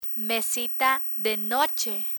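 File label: mesita de noche, Spanish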